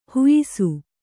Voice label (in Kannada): "ಹುಯ್ಸು", Kannada